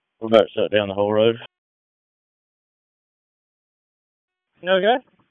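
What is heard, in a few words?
Public Safety Tactical, p25; wake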